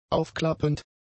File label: "aufklappend", German; adjectives